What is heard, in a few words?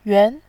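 "yuan", Mandarin Chinese, tone 2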